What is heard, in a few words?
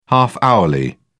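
"half hourly", British English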